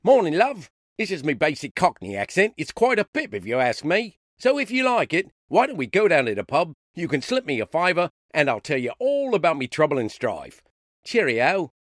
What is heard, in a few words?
Cockney; Voice, Acting & Singing samples